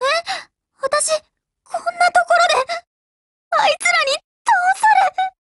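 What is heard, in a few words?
DEAD Japanese, Voice; GF Alarm; Destroyer buhuo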